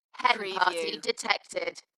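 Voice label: UK female, DJ vocals; Hen party detected; Dalekness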